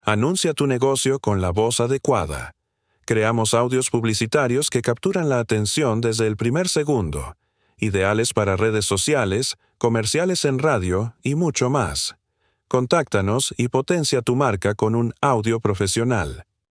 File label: Newsreader and Educator